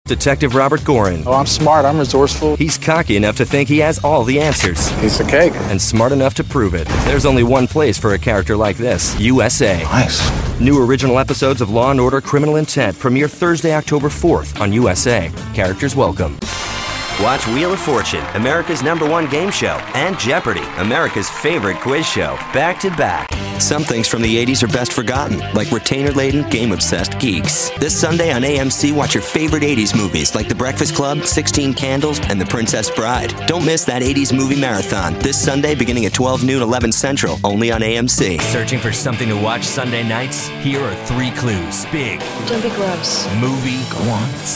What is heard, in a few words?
promo; men